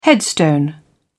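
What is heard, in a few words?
"headstone", British English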